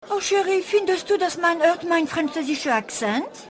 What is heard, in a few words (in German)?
Synchron, frauen